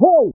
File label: hey 1920hz